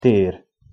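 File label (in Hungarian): "ter" Hungarian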